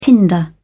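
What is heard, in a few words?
"tinder", British English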